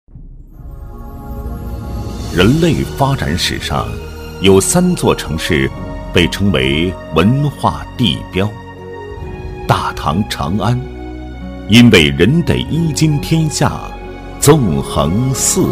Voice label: 男 抒情历史介绍

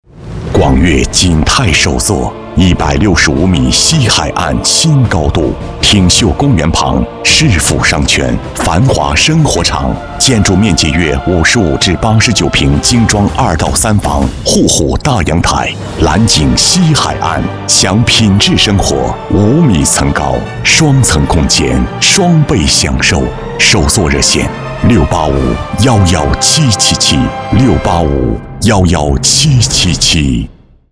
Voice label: 男,广; 粤锦泰首座

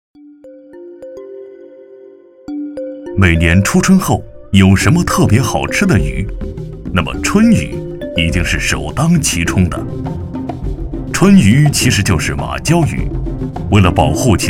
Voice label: 男 舌尖 美食解说

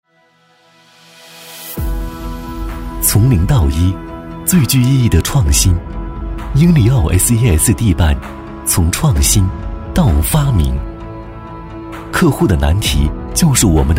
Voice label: 男 企业宣传; 英利奥

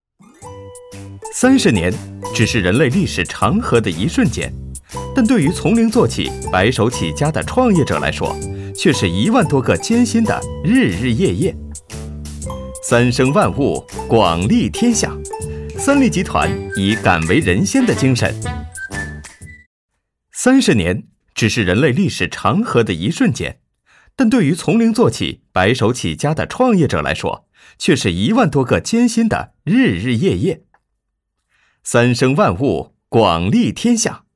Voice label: 男 飞碟说

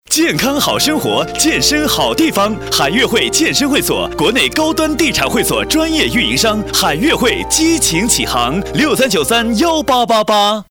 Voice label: 男 高端会所广告; 海悦会

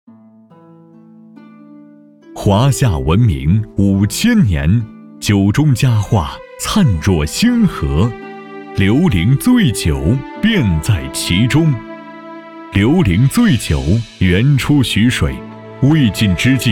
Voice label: 男 宣传

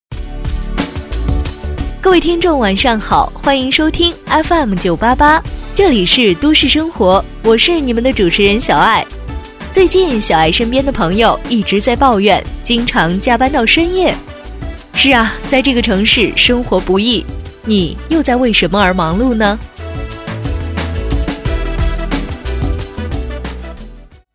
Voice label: 女, 电台, 主播

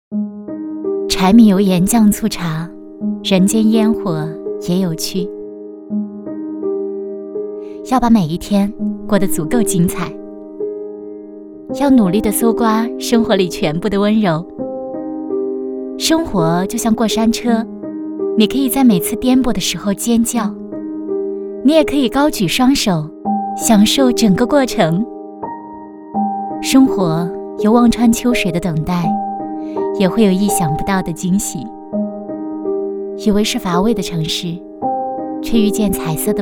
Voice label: 女 旁白 短视频心情语录

